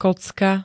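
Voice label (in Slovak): "kocka", Slovak; kocka -y -ciek ž.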